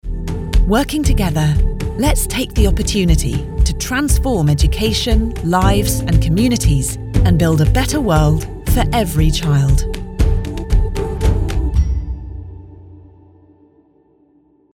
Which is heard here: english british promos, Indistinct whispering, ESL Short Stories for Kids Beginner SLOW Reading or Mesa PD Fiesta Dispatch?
english british promos